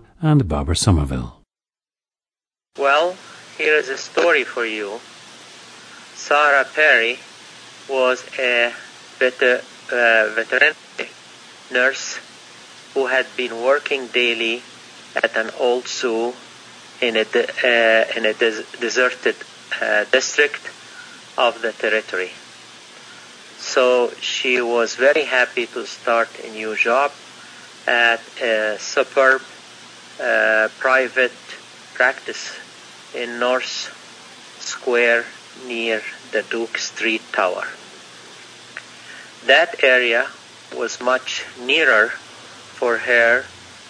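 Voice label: egypt, International Dialects of English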